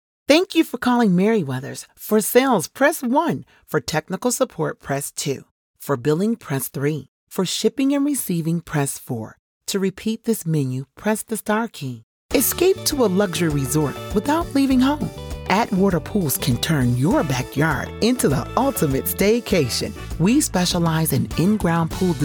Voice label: Black Female IVR On Hold; AdvantageIVR